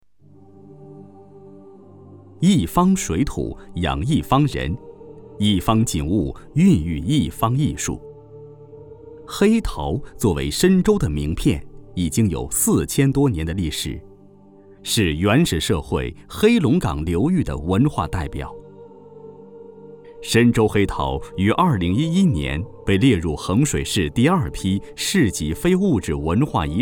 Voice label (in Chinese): 男 文化专题 深州黑陶